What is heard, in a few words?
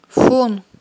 "фун", Aghul